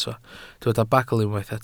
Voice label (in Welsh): "bagl", Welsh